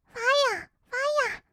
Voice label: ファイア ファイア, kawaii voice; ASMR; 1200 kawaii voice!!; 音ゲー

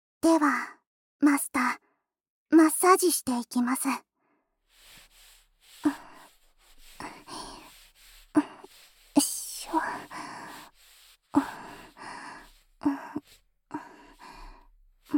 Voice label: 無表情メイド（マッサージ）

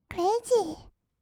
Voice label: クレイジー, kawaii voice; 音ゲー

